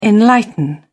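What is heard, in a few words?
"enlighten", British English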